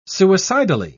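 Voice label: "suicidally", British English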